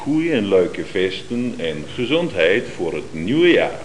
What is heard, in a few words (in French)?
neerlandais, voeux